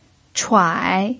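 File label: "chuai" third tone, Mandarin Chinese pinyin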